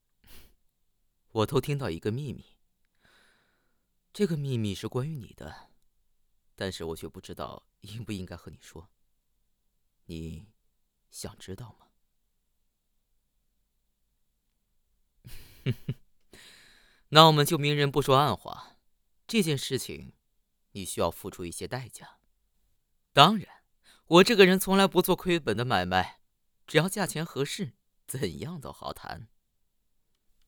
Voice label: 青年音

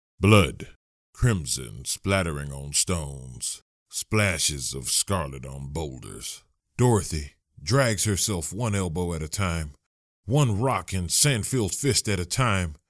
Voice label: 男美式游戏角色音

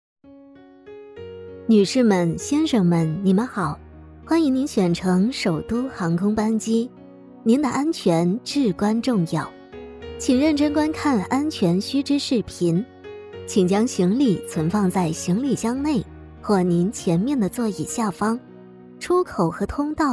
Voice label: 机场广播正式端庄

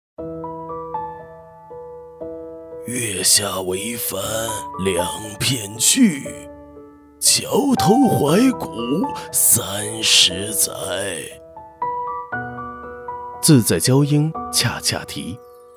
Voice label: 角色双角色老年青年

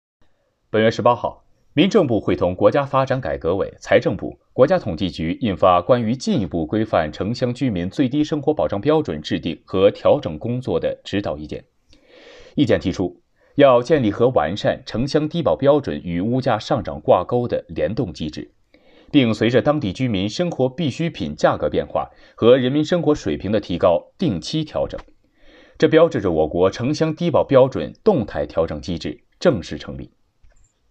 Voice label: 新闻

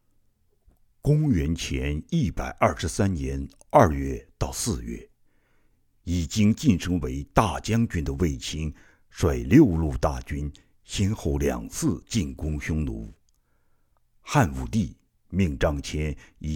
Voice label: 纪录片

